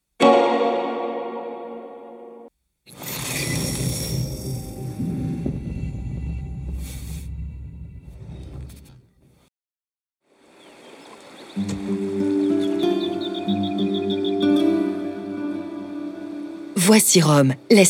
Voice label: Voix Guide Jeux Video; TOTAL WAR ROME REMASTERED

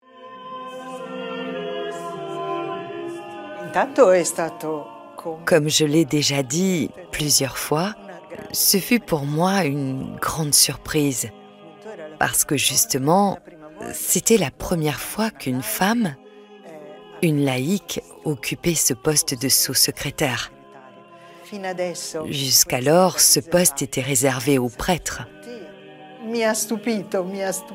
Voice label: Voice Over sur Documentaire; Les Femmes du Vatican

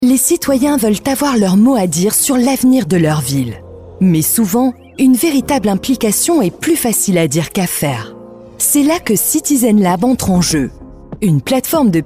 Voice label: Corporate dynamique, voix feminine; CITIZENLAB

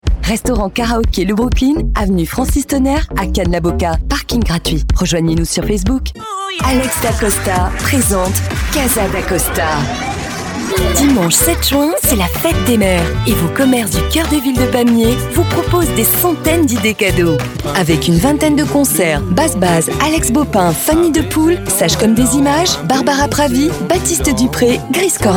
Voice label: Pub Radio, voix off feminine

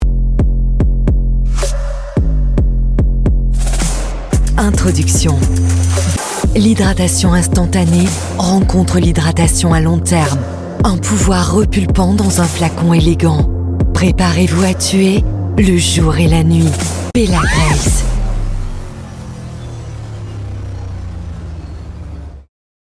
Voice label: promo web lips serum, voix off feminine; BELLA GRACE

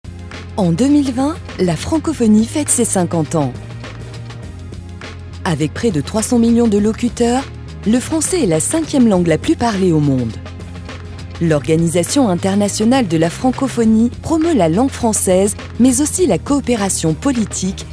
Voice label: Voix Off corporate, voix off feminine; 50ans de la FRANCOPHONIE

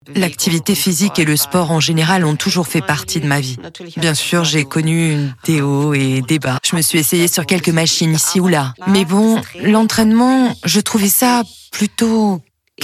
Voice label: Voice Over, voix off feminine; EGYM

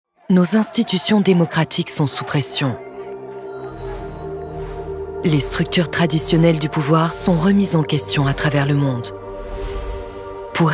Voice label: Corporate, voix off feminine; Notre mission chez CitizenLab

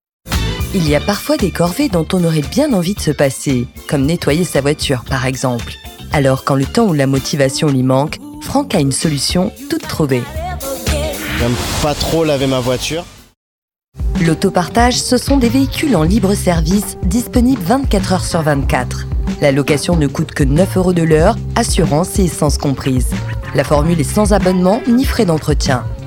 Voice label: Commentaires Emissions, voix off feminine

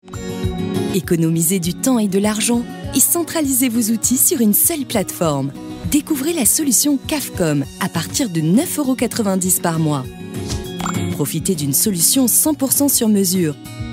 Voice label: Voix Off Corporate, voix off feminine; KAVKOM

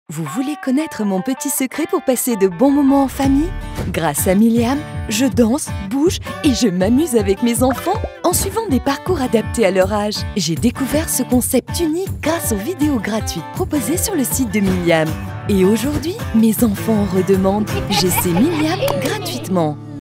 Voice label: Video Web, voix off feminine; MILIAM

